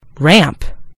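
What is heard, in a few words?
"ramp", American English; gcompris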